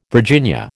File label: "Virginia", American